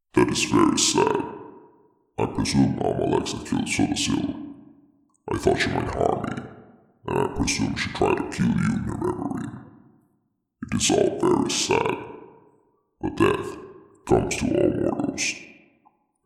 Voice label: lowpitch, unique voice auditions; vivec; almalexia; sotha sil; voice acting